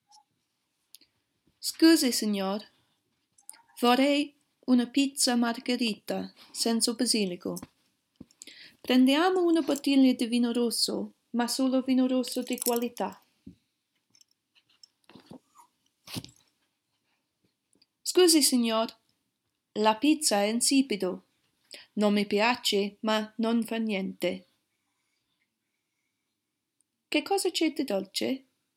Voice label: speaking question, italiano